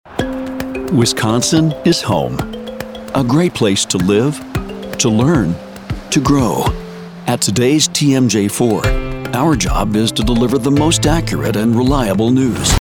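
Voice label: Wisconsin Is Home, voice over demos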